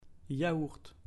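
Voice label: "yaourt", French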